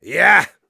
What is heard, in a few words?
yes, de